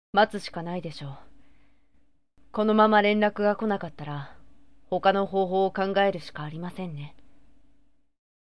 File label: sample boy